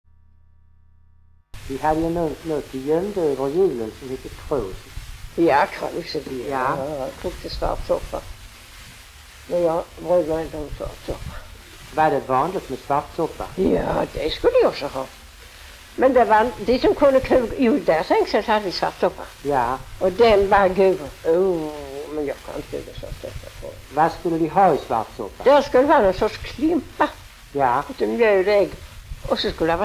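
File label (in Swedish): Lunds stad Skåne, Svenska sagor dialekter; Folkminnen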